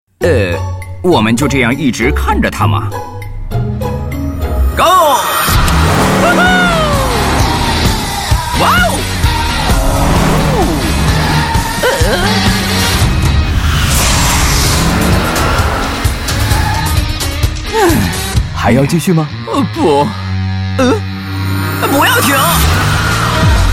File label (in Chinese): 男 汽车广告; 丰田雷凌